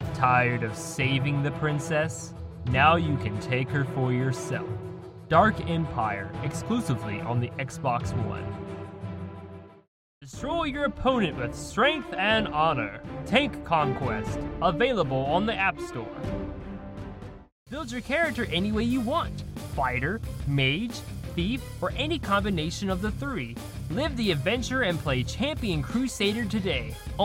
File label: Video Game Promo